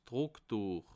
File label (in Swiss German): "drucktuech", alsace